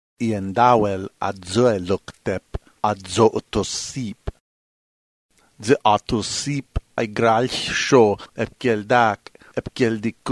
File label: ithkuil